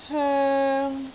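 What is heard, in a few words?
"hoeng3", Cantonese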